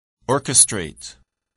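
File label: "orchestrate", English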